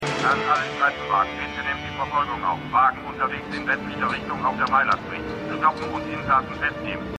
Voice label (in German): Funkstimme; Renegade